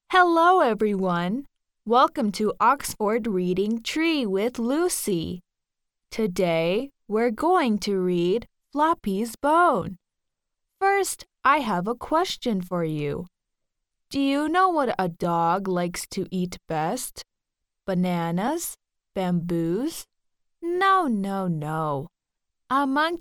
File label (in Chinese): 外籍女英 外语 美式英语 儿童故事成人版本